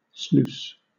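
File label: "sluice", British English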